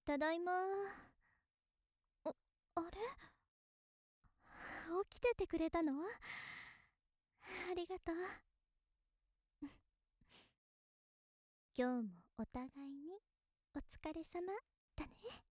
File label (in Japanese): 優しいお姉さん ただいま